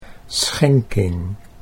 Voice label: "schenking", Dutch